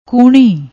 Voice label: "koohni", Punjabi